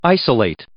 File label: "isolate", English